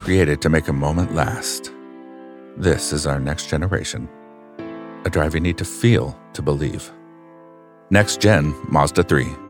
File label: US Male; Studio Nonagram